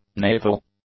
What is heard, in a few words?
"naval", english; adjectives